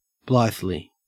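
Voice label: "blithely", English, Australian accent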